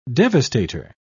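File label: "devastator", British English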